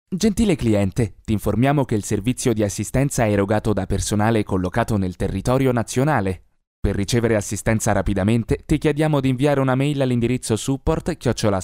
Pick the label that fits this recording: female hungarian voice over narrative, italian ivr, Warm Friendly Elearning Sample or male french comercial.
italian ivr